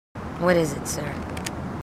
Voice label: marines female; marine tough; h2dialogue